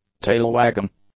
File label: "tail-wagging", English; adjectives